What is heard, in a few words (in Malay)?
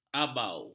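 "abau", Malay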